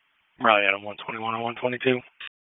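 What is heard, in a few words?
Police Southwest District Dispatch; Raleigh; wake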